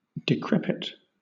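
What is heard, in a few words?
"decrepit", British English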